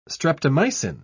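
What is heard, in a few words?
"streptomycin", English, British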